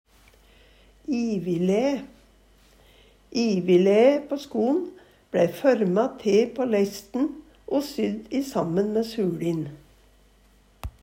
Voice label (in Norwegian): ivilæ, Numedalsmål